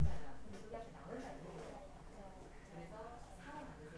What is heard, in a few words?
whisper